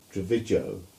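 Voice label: "Trevigowe", English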